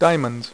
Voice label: "DIAMONDS", Dealer's Speech; PowerPOKER